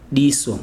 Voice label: "eye", Chikunda

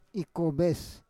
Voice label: "ikoves", Roon

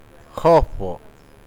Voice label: uitoto House casa

